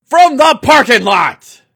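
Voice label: from the parking lot, basketball announcer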